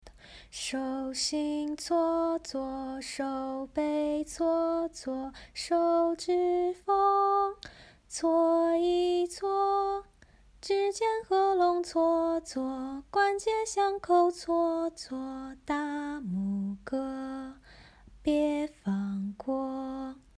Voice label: Mandarin

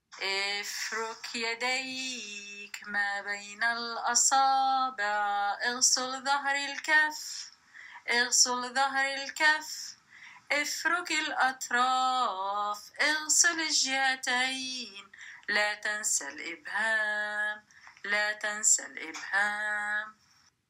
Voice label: Arabic Audio

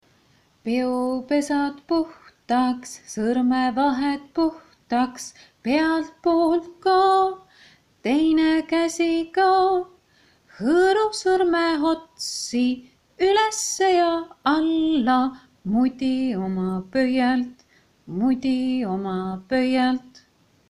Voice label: Estonian

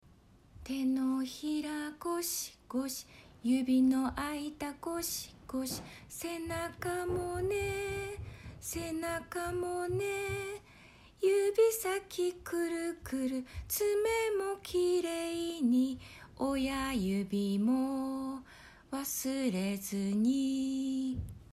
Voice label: Japanese

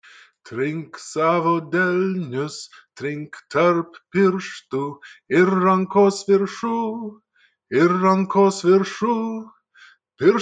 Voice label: Lithuanian

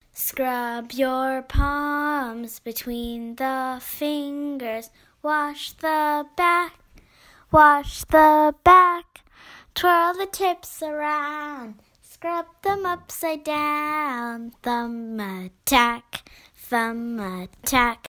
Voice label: English UK

